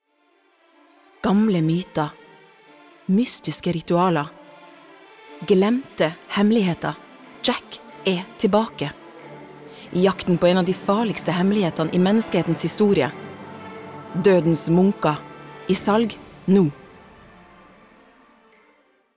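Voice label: Norwegian movie trailer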